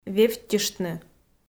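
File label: "вевттьыштны", Komi, female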